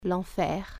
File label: hell, french